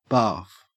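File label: "barf", Australian English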